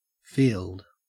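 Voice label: "field", Australian English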